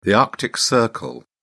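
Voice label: "arctic circle", English, British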